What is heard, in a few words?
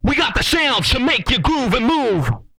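groove move, Vocal, R&B Dance, hip-hop; TB HIP-HOP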